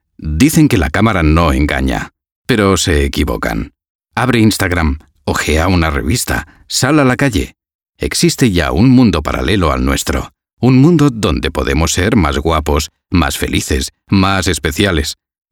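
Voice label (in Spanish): e-learning, Spain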